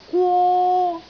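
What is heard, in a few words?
"gwo1", Cantonese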